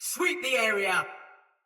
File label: Sight Lost Shout Soldier Mace Agent Female; Battle; Sinecure Melee